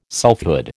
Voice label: "selfhood", American

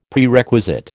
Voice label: "Prerequisite", American

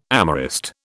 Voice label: "amorist", British

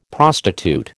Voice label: "prostitute", American

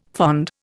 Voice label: "fond", Indian

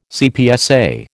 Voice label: "CPSA", American

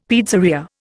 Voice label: "pizzeria", Indian; Dictionary

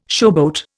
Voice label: "showboat", Indian

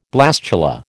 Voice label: "blastula", American

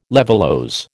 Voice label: "levulose", American

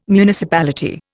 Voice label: "municipality", Indian

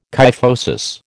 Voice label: "kyphosis", American

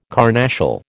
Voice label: "carnassial", American

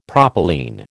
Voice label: "propylene", American

